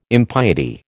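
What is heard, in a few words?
"impiety", American English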